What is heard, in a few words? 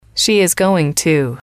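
"she is going to", English, female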